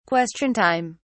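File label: "question time", British English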